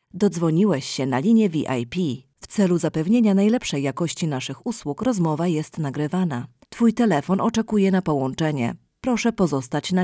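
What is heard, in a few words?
Polish IVR; IVR/Phone systems; Female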